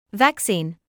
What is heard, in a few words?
"vaccine", English word